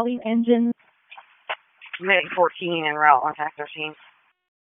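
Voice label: Public Safety Tactical, p25; wake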